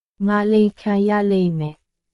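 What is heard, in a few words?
Myanmar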